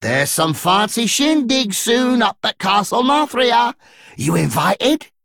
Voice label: Male Dredger